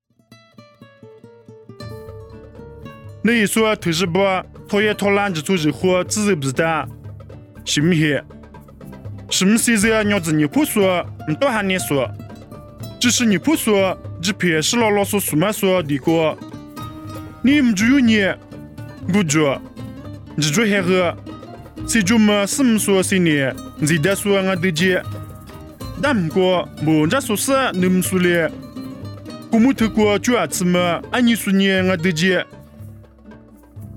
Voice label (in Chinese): 凉山彝语; 地中海推雅推喇